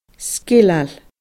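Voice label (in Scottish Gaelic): "sgileil", Scottish Gaelic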